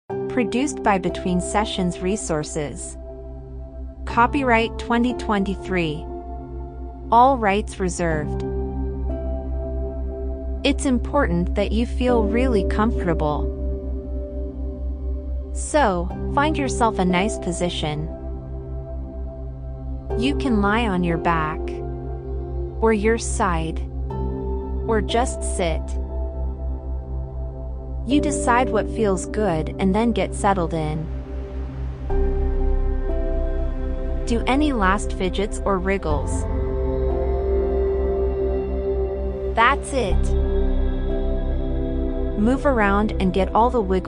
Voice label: Rainbow Waterfall Meditation child; Rainbow Waterfall Feelings Meditation